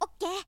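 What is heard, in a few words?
boy, "ok"; shonen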